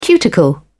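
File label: "cuticle", British English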